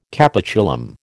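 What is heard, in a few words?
"capitulum", American English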